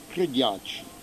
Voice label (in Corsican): "treghjacci", lingua corsa